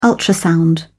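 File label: "ultrasound", British English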